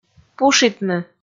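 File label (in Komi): "пушитны", Komi, female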